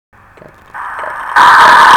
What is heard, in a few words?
Narration